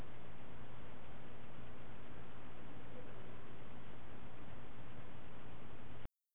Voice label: Echoed Voice